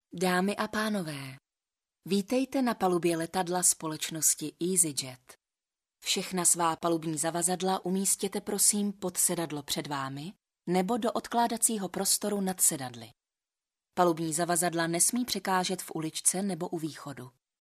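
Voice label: czech female voice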